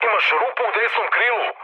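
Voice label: Serbian right wing mood med; speech; War Thunder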